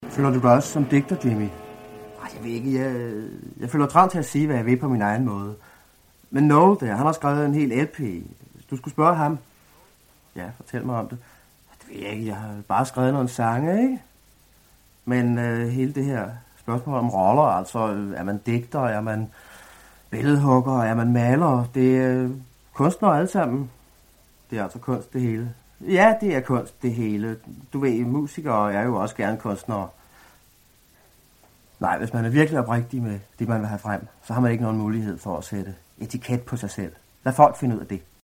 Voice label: Danish Radio Broadcast; 1969-01-10 - Rabalder I Teateret, Copenhagen

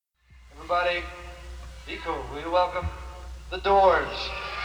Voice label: House announcer; PNE, Vancouver, Canada

